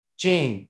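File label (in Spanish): Voice Acting, Spanish, male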